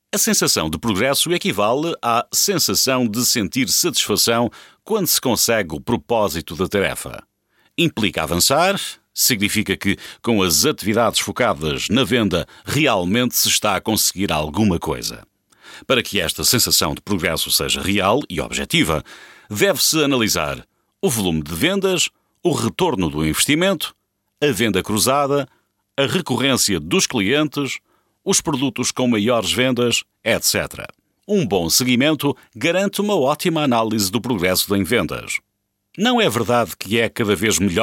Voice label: Portuguese voice elearning

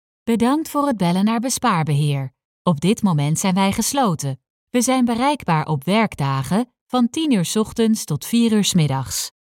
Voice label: dutch voice telephone

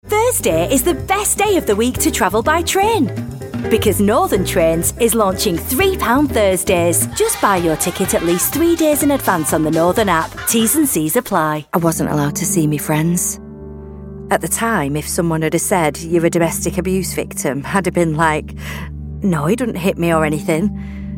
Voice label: commercials montage

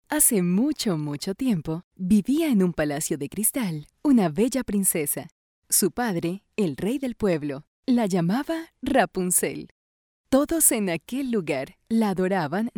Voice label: latin spanish female audiobook

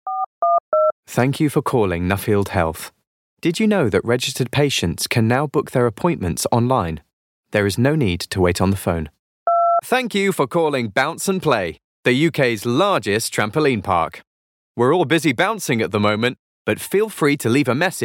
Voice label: on hold

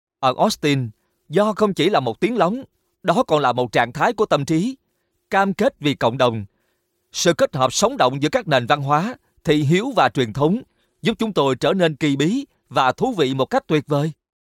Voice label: vietnamese voice continuity radio

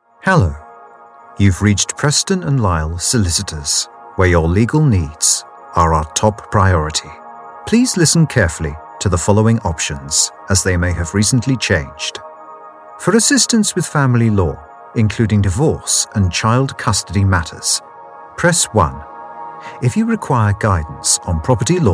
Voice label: telephone ivr

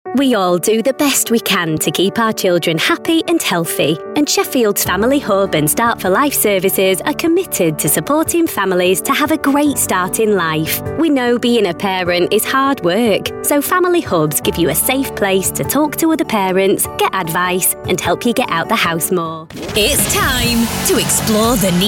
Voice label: radio

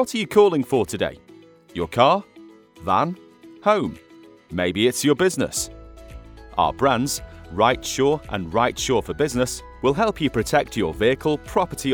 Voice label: on hold

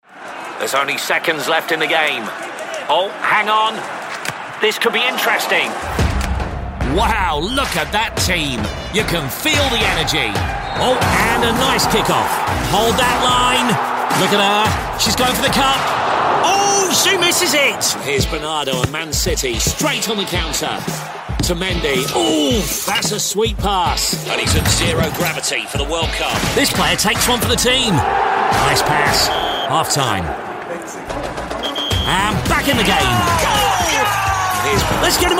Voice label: football commentator

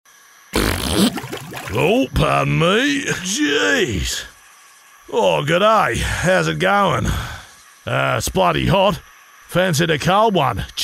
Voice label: Australian parody

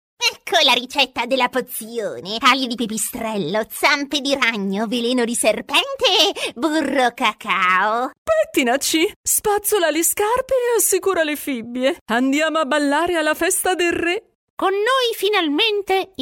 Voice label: italian voiceover character

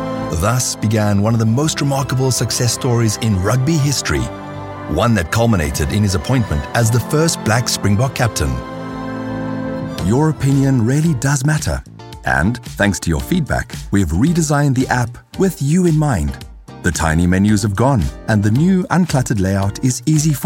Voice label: south-african voiceovers documentary

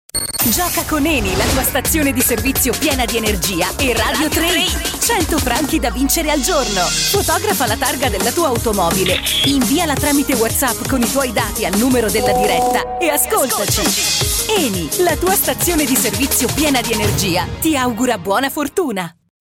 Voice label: italian female promo; eni promo

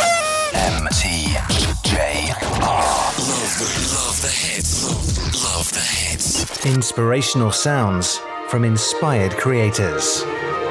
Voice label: radio imaging

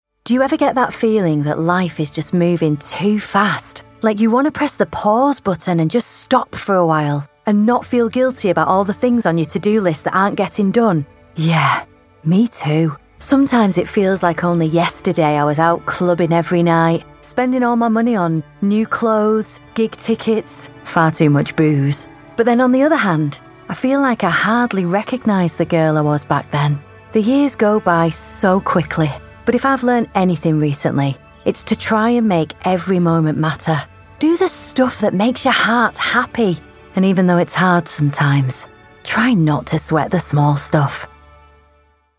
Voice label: natural speaking voice

